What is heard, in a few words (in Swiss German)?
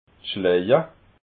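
"schlaja", Alsatian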